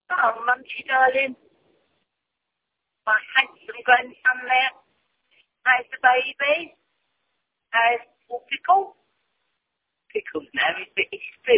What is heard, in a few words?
voicemail